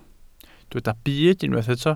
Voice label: "byd", Welsh